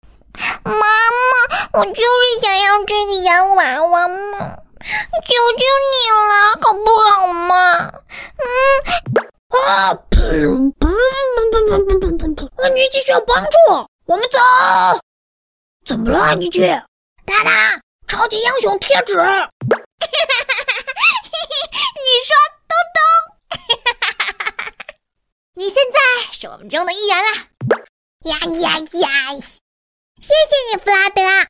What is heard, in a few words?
Mandarin China Character Voices KID VOICES